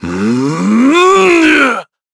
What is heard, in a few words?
Casting, kr; voices; heroes; Shakmeh; kingsraid